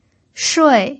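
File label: "shui", Mandarin Chinese pinyin, fourth tone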